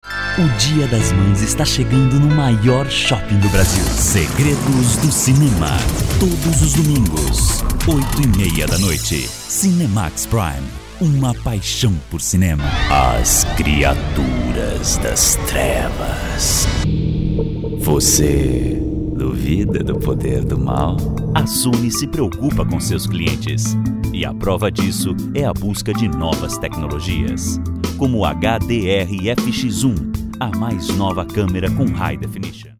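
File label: Brazilian Portuguese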